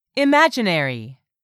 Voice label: "imaginary", English; 3000 words

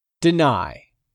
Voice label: "DENY", English; 3000 words

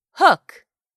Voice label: "HOOK", english; 3000 words

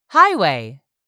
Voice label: "HIGHWAY", english; 3000 words

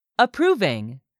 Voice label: "approving", english; 3000 words

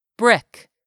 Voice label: "brick", english; 3000 words

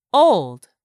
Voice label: "old", English; 3000 words

